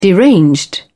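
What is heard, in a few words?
"deranged", English, British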